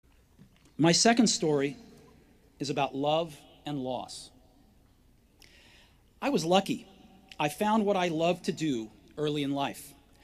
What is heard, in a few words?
Commencement Address; Steve Jobs; 2005; Stanford